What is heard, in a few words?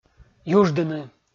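"юждыны", Komi, male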